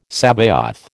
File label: "Sabaoth", American